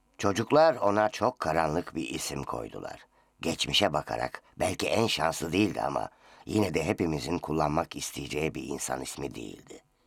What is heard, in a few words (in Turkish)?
yetişkin örnek